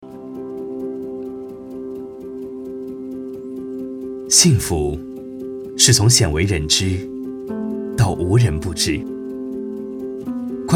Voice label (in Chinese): 走心旁白; 走心旁白快手